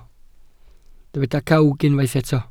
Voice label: "cawg", Welsh